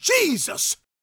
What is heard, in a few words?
PREACHIN, Gospel, PREACHER; ILIO; Vocal Planet